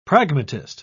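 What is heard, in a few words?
"pragmatist", English, British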